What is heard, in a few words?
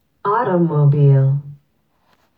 automobile en slow